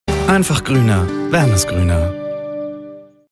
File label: Werbung, easy, chummy, sunny, Commercial, German; Wernesgrüner